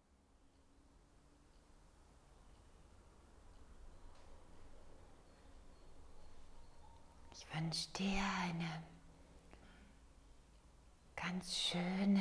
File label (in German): Meditation Solarplexus